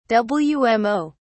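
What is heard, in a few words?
"wmo", English, British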